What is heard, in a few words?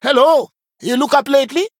Male Dryad Greetings; en